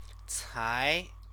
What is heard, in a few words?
"cai", Chinese; 才; only then; adverb; Chinese lessons